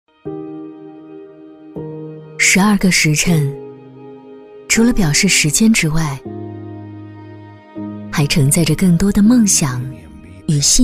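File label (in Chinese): 女-走心广告【沉稳柔和】; 十二时辰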